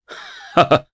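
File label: Vox Happy Japanese; Nicx; kingsraid; voices heroes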